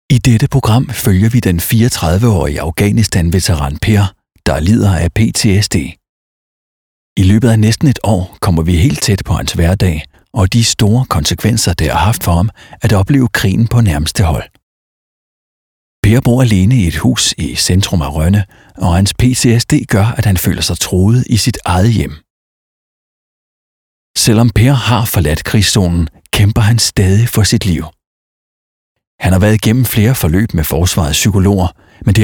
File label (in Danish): DOKUMENTAR